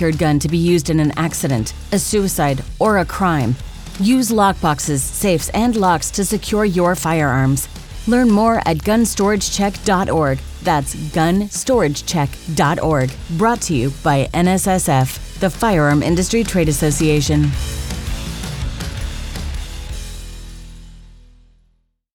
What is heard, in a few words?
National Gun Storage Safety Female